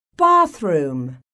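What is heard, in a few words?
"BATHROOM", English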